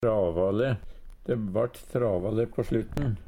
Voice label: "travale", Numedalsmål; strevsamt, gjerne i samband med snau tid; Adverb

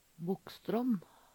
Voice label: "Bokkstrånn", Numedalsmål; Bogstrand; Særnamn (stadnamn)

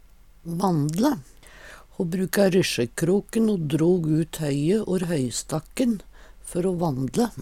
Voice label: vandle, Numedalsmål; Ho bruka ryssjekroken o drog ut høye or høystakken før o vandle.

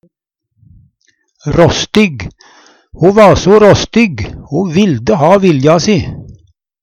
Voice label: rastig, Numedalsmål; Ho va råstig.; Adjektiv

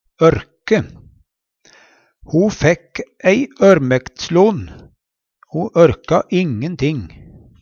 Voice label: "ørke", Numedalsmål; Ho fækk ei ørmæktslon' o ørka ingen ting.; Verb

